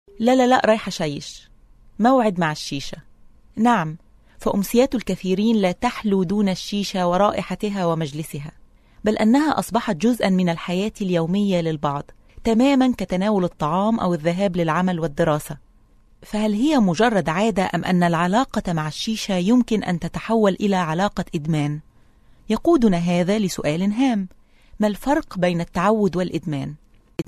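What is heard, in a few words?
Arabic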